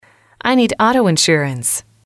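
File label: i need auto insurance, English, female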